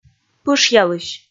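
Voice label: "пышъялысь", Komi, female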